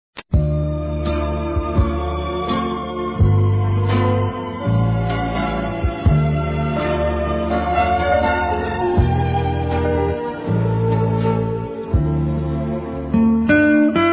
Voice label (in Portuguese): Telemensagens Amor Fem; Telemensagens 2017